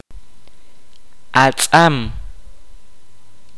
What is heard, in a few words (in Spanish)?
"sal", Kaqchikel